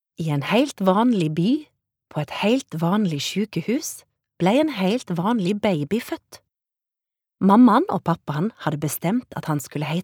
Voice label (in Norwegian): no barnebok, norwegian, female